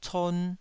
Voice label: "con2", Taiwanese